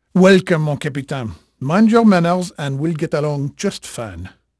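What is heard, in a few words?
French male, voice; Pirates Of The Caribbean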